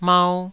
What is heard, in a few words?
"mau2", Taiwanese syllable